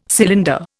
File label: "cylinder", Indian